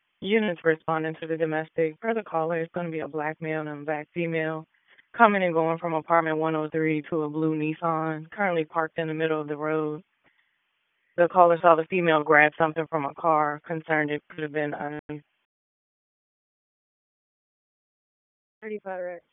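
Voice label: Police Southeast District Dispatch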